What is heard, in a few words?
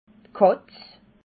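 "katz", Alsatian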